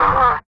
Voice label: feral hurt vox; Beyond the Sword; Units

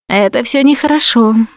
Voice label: going to die, russian; Producer

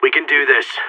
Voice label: pep talk, seal; player vo

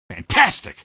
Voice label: "fantastic", male; npc; Garry's Mod; hl2

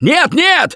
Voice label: grabbed by smoker, russian, Survivor Voice

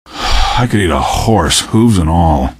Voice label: question, male; Garry's Mod; hl2

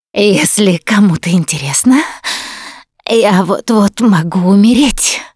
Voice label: going to die, russian; Producer

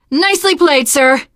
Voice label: nice job, teen girl; Left 4 Dead 2

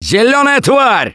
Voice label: warn spitter, russian; Voice; Gambler

